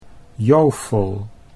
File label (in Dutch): "jofel", Dutch